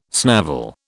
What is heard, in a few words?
"snavel", British